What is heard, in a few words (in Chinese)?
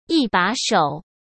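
"一把手", Mandarin Chinese; chinese-flashcards; hsk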